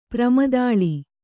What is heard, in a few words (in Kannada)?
"ಪ್ರಮದಾಳಿ", Kannada